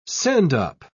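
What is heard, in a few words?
"sendup", English, British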